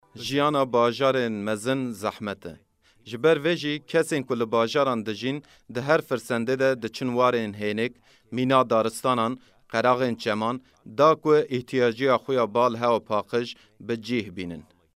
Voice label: kurdish voiceover, Kurdish male voice over